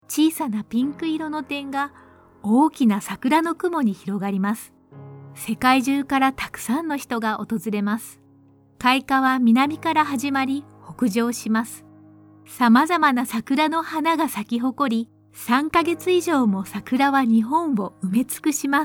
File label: Voice Over Japanese Cherry Blossom